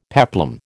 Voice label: "peplum", American

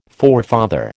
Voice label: "forefather", American

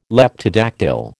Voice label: "Leptodactyl", American

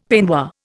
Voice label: "peignoir", Indian; Dictionary

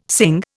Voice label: "Singh", Indian

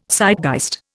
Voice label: "Zeitgeist", Indian

